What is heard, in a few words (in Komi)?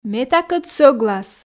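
ме такӧд сӧглас, Komi, female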